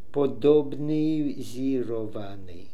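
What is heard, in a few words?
"Podobnisirnian", pronunciations; Fourth Way; Beelzebub pronounciations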